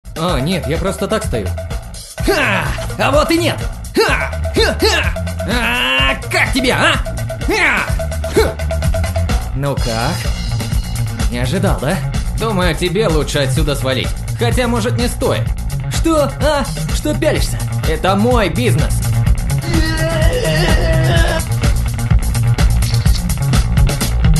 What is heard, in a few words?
RUS